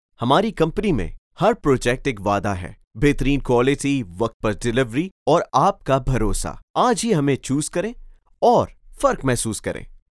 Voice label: Urdu Corporate Explainer